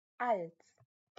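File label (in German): "als", German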